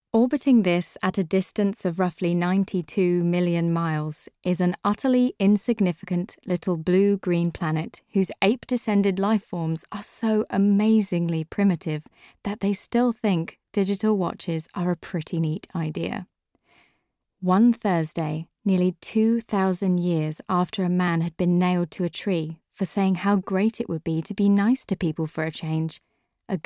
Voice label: Narration; Hitchhikers